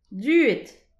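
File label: "duet", Breton; petit precis de prononciation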